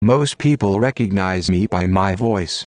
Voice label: slow speaker